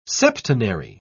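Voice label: "septenary", British English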